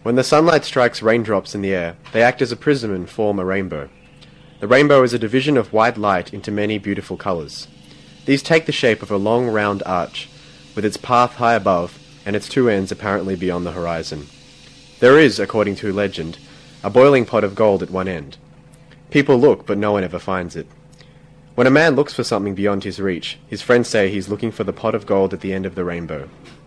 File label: australia